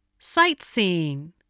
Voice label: "sightseeing", spelling

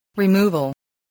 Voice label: "removal", spelling voice

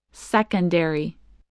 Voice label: "secondary", english spelling

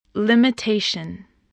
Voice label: "limitation", spelling

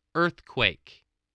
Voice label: "earthquake", spelling

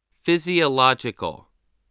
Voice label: "physiological", voice, English

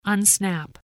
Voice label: "unsnap", English spelling voice

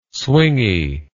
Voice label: "swingy", English word

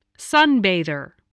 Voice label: "sunbather", spelling

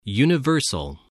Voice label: "universal", English spelling voice

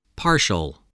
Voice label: "partial", English, voice

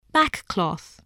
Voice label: "backcloth", english

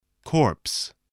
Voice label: "corpse", voice spelling

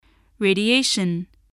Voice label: "radiation", english

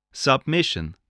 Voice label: "submission", spelling

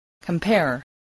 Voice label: "compare", english

